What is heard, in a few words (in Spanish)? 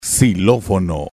"xilofono", es